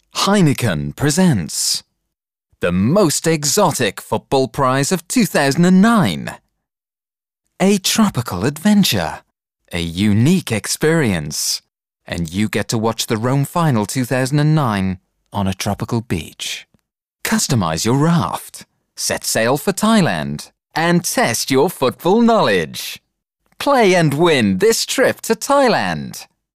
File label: english dinamica